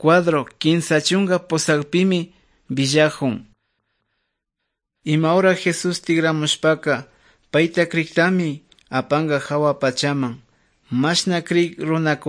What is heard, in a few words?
good news quichua otovalo